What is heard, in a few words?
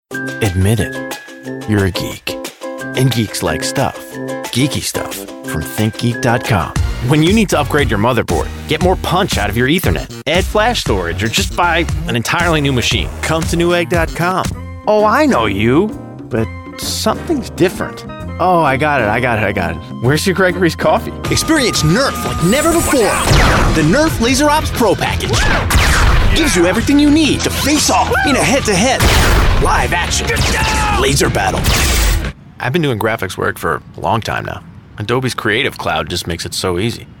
Comm, real people male